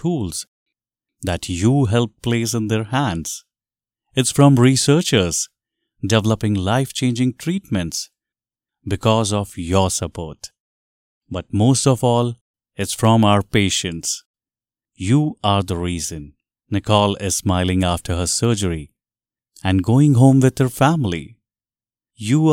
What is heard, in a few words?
english indian medical narration